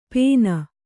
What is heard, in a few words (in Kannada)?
"ಪೇನ್", Kannada